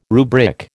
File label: "rubric", American